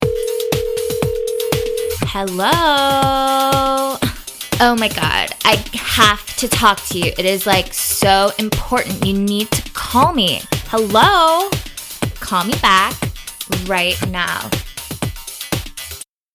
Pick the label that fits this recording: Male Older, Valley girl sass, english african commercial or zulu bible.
Valley girl sass